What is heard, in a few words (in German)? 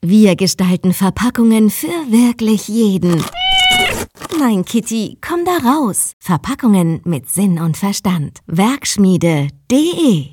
Funkspot; WerkSchmiede Design